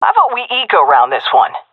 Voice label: request eco, seal fem; vo; Counter Strike Model Pack; CS:GO